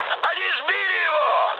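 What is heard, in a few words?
Russian aircraft destroyed mood high, speech; War Thunder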